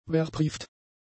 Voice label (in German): "verbrieft", German; adjectives